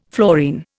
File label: "fluorine", Indian